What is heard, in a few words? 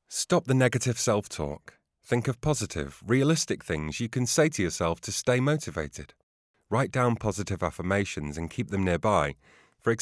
English British others